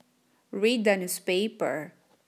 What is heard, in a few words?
Connected speech consoante vogal